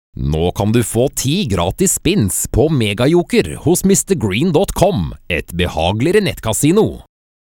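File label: norwegian male commercial; voice demos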